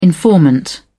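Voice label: "informant", British English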